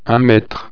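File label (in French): "metre", French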